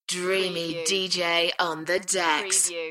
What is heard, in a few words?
UK female Dreamy dj on the decks; beanstalk audio